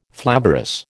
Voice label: "flavorous", American